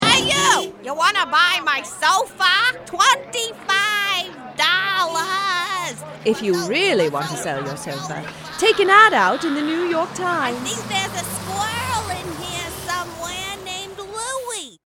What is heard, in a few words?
Buying and Selling English and New York Accent